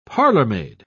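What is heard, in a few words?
"parlourmaid", British English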